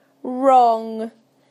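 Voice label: "wrong", British English; PictureDictionary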